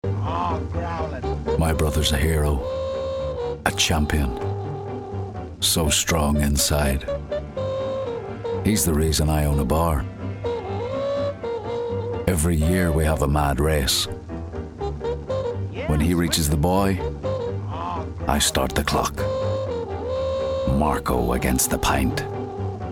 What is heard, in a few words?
Commercial; Guinness